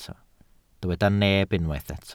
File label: "neb", Welsh